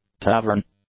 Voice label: "tavern", english; nouns

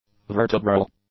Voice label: "vertebral", English; adjectives

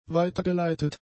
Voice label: "weitergeleitet", German; adjectives

